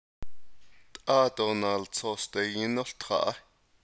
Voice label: navajo; imperative; Don't read the book